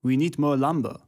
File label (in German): german voice; wyrmsun